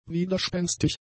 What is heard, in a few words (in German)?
"widerspenstig", German; adjectives